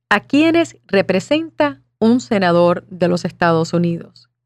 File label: Spanish